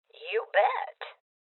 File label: Confirmation, Female Old